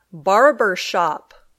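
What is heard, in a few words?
"barber shop", English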